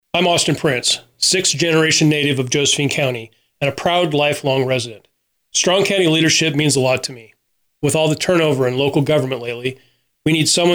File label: radio ads